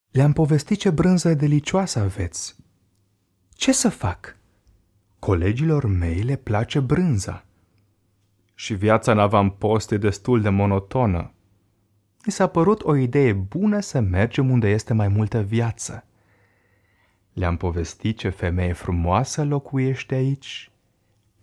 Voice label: ro rolle, sprecher rumaenisch

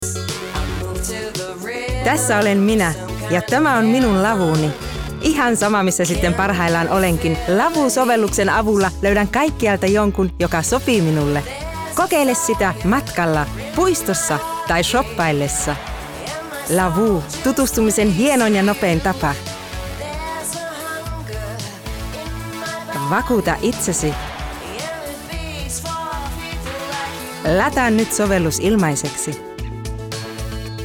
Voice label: finnisch werbung; sprecher

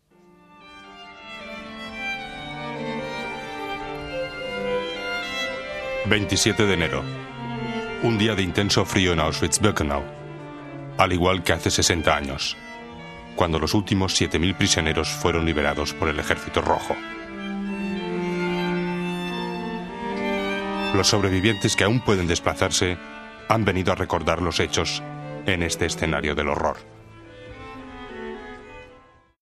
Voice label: audioguide, spanisch

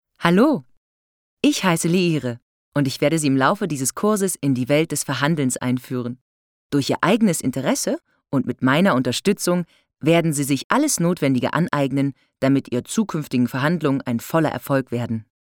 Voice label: deutsch frauen elearning

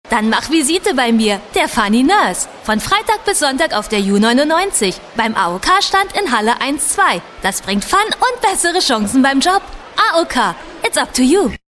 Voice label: werbung, deutsch frauen

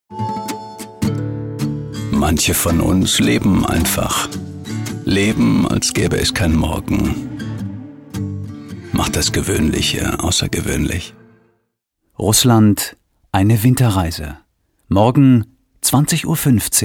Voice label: de werbung, deutsch maenner

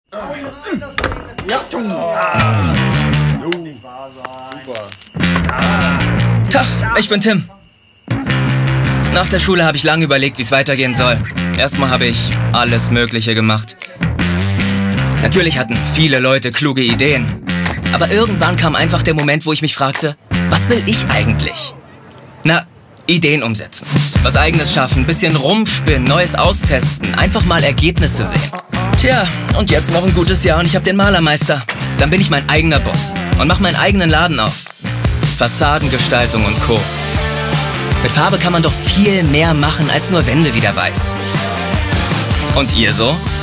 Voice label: werbung, deutsch maenner; sprecher

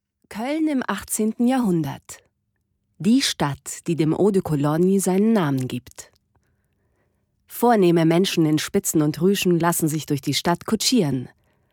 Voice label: deutsch frauen audioguide; sprecher

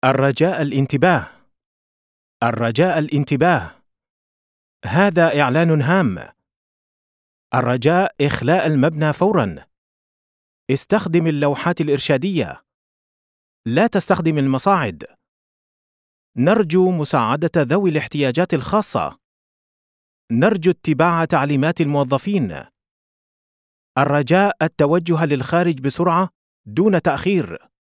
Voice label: ar ansage; sprecher; arabisch

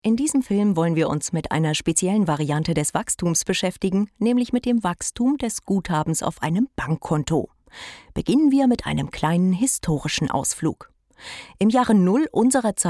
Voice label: elearning, deutsch frauen; sprecher

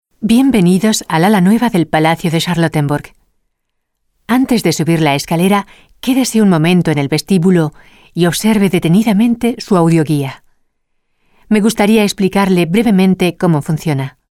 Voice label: telefon, spanisch

